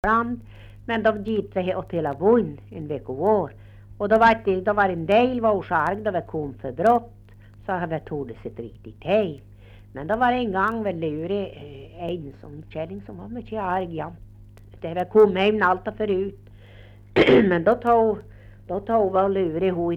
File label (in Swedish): Svenska sagor, dialekter; Folkminnen